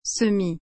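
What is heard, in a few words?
"semi", French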